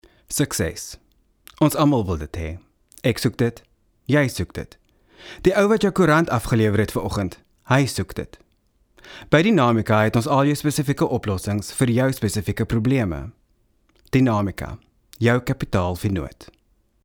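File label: afrikaans soft sell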